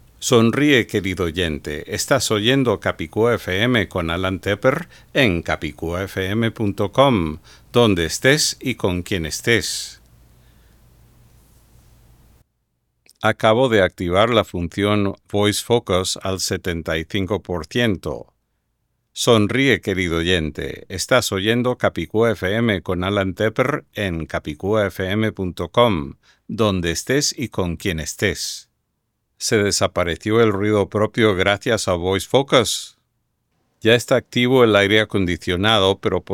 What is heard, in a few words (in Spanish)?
XLR Beta 87 castellano